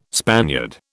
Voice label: "Spaniard", British